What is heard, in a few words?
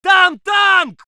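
voice soviet infantry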